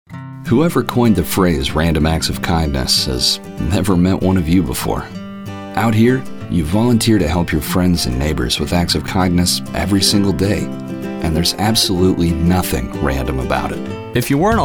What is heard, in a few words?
Commercials